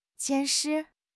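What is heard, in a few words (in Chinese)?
"监视", Chinese; HSK6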